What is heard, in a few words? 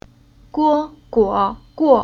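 "Guo", Chinese syllable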